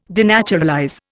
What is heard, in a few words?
"denaturalize", Indian